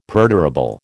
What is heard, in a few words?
"perdurable", American